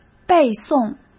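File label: "背诵", Mandarin Chinese; hsk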